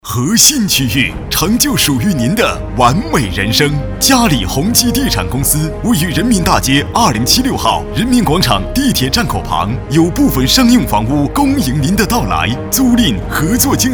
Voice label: 男国 广告 地产 浑厚; 嘉里宏基地产公司

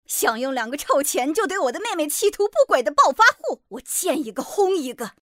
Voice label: 动画 角色 刚烈个性猛女

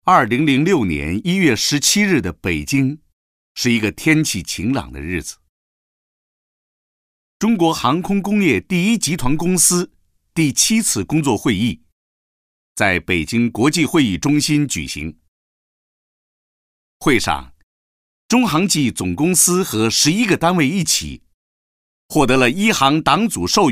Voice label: 宣传片 企业 航空公司; 李立宏

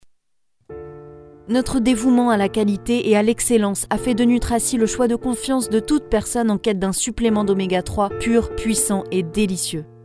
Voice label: 法语女 外语; 小语种

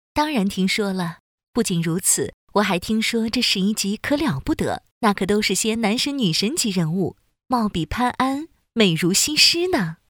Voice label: 动画 模仿; 模仿芈姝

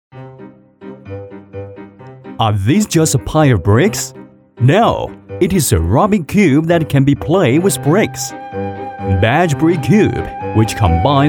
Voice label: 男英 外语 国内英语 动画; MG动画BaigeBrickCube

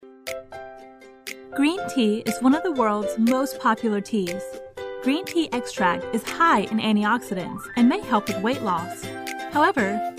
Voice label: 外籍女英 外语 美式英语 广告

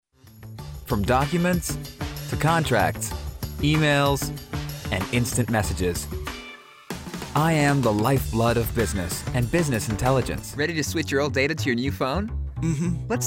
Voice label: 外籍男英 外语 美式英语 样音 年轻

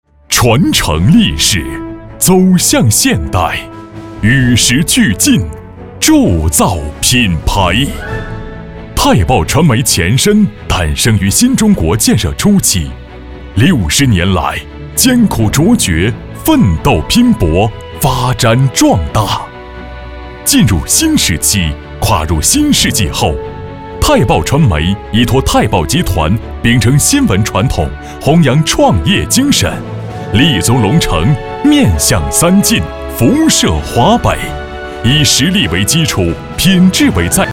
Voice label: 宣传片 企业 激情, 男国; 太报传媒

